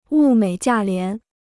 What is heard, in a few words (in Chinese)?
"物美价廉", Chinese